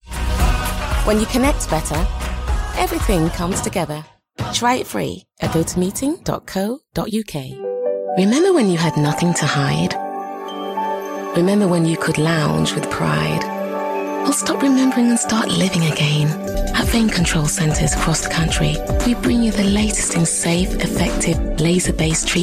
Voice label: Commercial VO; LA